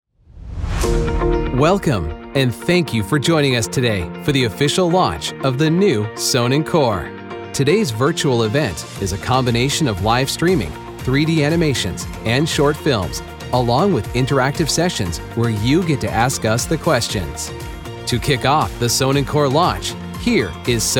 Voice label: corporate announcer